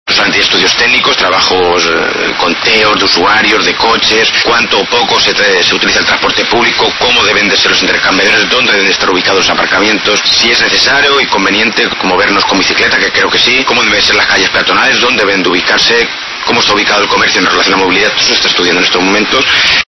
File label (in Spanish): noticias